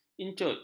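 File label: "incut", Malay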